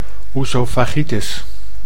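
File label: "oesophagitis", Dutch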